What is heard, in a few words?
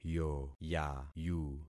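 "yoyayu", concatenated syllables; Affixing experiment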